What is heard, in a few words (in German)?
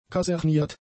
"kaserniert", German; adjectives